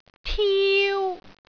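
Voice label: "tiu1", Cantonese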